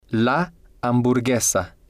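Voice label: "la hamburguesa", Spanish, male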